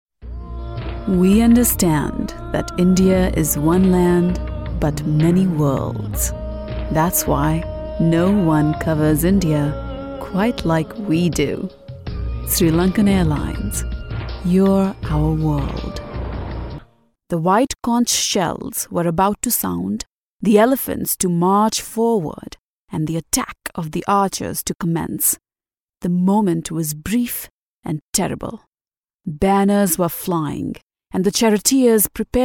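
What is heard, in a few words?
Hindi English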